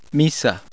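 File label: Spanish i, five vowels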